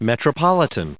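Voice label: "metropolitan" English, American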